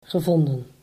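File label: "gevonden", Dutch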